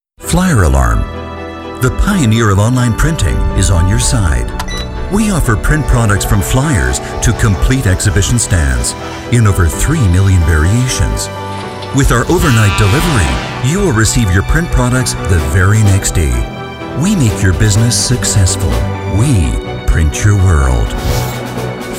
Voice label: american english male Imagefilm; Flyeralarm